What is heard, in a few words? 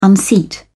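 "unseat", British English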